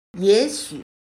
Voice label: "也许", chino

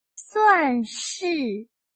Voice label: "算式", 汉语

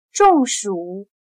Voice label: "中暑", chino

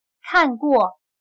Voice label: "看过", Chinese

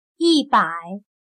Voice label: "一百", chino

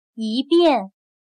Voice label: "一遍", chino

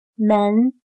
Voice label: "门", chino

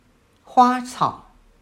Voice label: "花草", 汉语

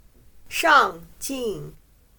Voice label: "上进", chino

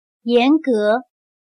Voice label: "严格", chino

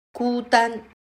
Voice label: "孤单", 汉语

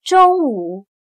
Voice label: "中午", chino

